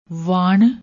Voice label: "wan", Punjabi; ਵਾਣ

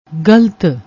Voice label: "galt", Punjabi

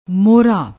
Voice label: "mohra", Punjabi

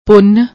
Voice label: "punn", Punjabi; ਪੁੰਨ

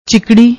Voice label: "chikdi", Punjabi; ਚਿਕੜੀ

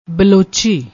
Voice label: "blochi", Punjabi

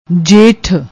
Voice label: "jaith", Punjabi

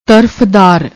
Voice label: "tarafdaar", Punjabi; ਤਰਫਦਾਰ

